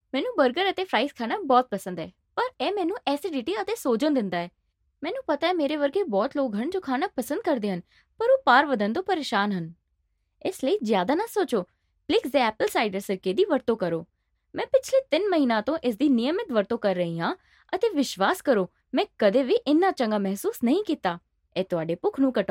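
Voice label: Punjabi General; voice demos; GoLocalise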